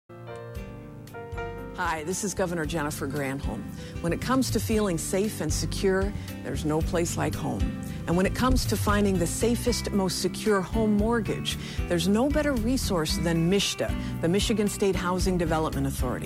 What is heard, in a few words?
commercial; mishta